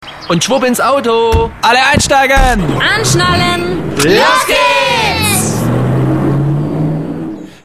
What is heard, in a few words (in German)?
sprechszene